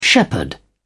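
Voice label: "shepherdess", British English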